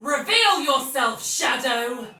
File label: Sight Lost Shout, Soldier Female, Battle; Dialog; Barks; Sinecure